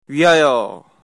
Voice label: cheers, Korean